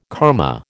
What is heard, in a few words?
"karma", American